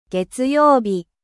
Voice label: "getsuyobi", japanese